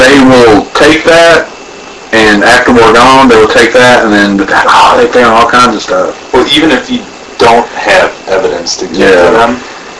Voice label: Living Room Unexplained Very Loud whisper (Get out) AMPLIFIED